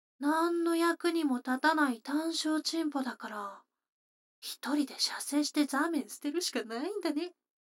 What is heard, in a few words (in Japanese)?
女の子 台詞, ASMR